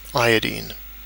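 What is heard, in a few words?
"iodine", British English; pronunciations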